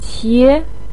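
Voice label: "qie", Chinese pinyin, second tone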